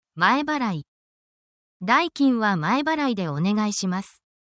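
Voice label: Japanese word, essential Japanese phrases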